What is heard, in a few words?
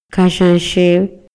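Maya, male; RELIGIÓN Y CREENCIAS; INALI; MayaYuc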